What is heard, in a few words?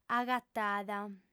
"agatada", audiofem